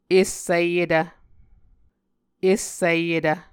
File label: "essiyetv", Muscogee